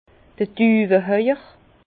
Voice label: "duwehauer", Bas Rhin; vin; merrandier

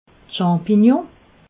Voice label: "champignons", alsace

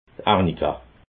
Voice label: "arnika", alsace

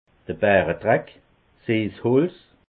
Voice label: "baredreck", alsace

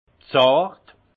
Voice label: "zart", alsace; vin; délicat

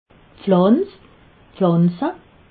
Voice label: "pflanz", alsace

